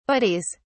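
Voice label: "buddies", English, British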